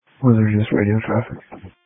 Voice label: Police Tactical Encrypted